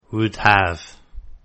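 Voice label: "would have", English; Contractions Pronunciation Practice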